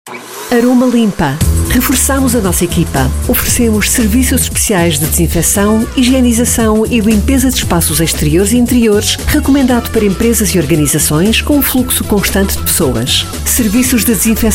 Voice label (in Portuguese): spot radio; Aromalimpa; aromalimpa na midia